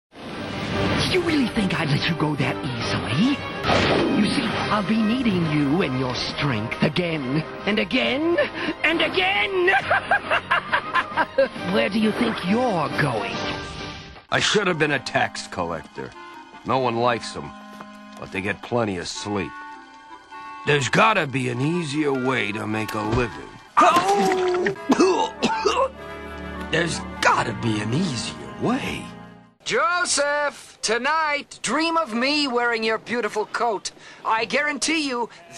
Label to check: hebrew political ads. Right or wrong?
Wrong